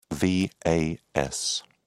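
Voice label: "vas", British English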